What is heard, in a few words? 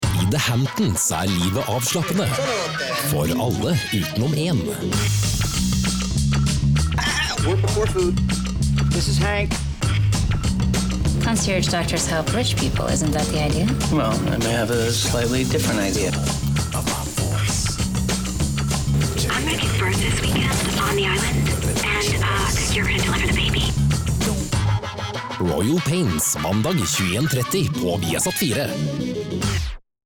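norwegian male commercial; voice demos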